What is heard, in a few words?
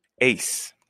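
"ace", American, male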